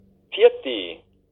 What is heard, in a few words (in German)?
"Pfiaddi", Mundart; tannheimertal